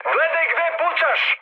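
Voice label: Serbian player friendly fire mood high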